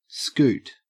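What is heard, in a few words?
"scoot", Australian English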